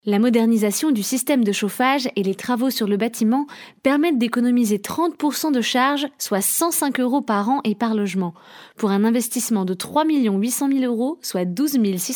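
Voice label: French, female, narration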